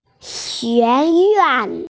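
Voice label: "xueyuan", Mandarin Chinese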